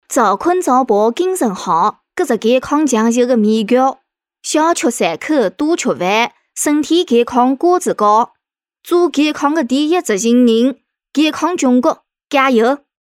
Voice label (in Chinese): 台州方言 女 台州三门方言; 方言配音